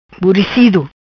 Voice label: "Buricido", Macanese

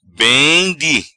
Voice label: "Bem Di", Macanese; muito; very

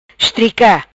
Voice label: "Istrica", Macanese

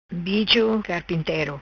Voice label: "Bicho Carpintero", Macanese

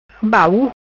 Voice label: "Baul", Macanese

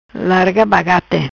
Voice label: "Bagate Larga", Macanese

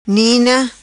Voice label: "Nina", Macanese

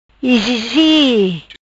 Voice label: "Izizi", Macanese

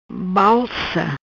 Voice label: "Balsa", Macanese

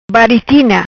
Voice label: "Baritina", Macanese; Uniforme, roupa; Uniform, clothing

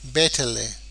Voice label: "Betele", Macanese

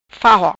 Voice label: "Fahoc", Macanese

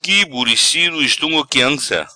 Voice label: "Qui Buricido Estunga Quianca", Macanese

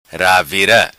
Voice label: "Ravira", Macanese

